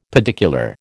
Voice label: "pedicular", American